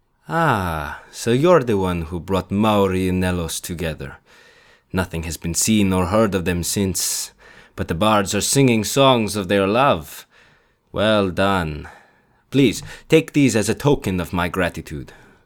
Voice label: spanish breton